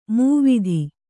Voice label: "ಮಾವ್ತ", kannada